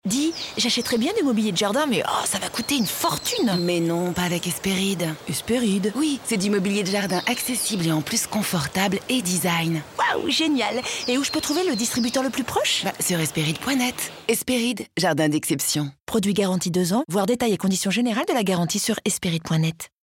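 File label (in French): comedie mention, comédienne voix off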